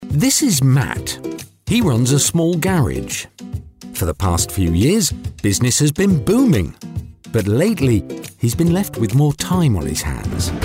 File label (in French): voix off anglaise; demo voix off; poulayot studios